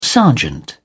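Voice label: "sergeant", British English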